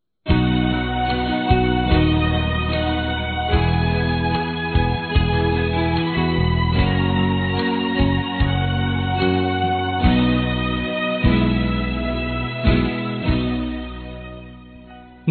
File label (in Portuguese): Natal Irmã Masculino; Telemensagens; Natal e Ano novo